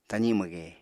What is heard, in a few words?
"tazi mwge", Apatani